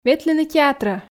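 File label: "ветлыны театрӧ", Komi, female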